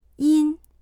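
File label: "yin1", Mandarin Chinese; 阴; 曇っている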